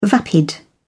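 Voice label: "vapid", British English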